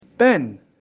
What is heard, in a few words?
"PEN", english; grade3; one animal many animals